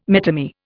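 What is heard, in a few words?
"metamere", English, Indian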